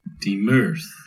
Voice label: tuesday, Cornish